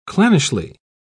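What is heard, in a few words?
"clannishly", British English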